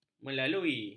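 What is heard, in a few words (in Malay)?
"melalui", Malay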